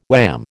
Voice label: "wham", American